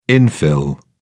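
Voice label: "infill", British English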